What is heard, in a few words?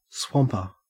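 "swamper", Australian English; pronunciations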